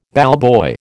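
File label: "ballboy", American English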